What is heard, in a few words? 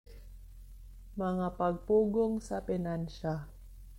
Cebuano